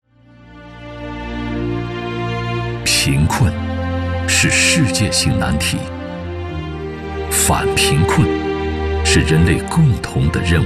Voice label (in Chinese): 男 专题 脱贫大决战